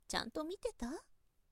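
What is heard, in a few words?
"chantomiteta", Japanese, woman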